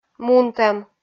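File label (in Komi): "мунтӧм", Komi, female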